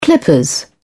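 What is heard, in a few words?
"clippers", British English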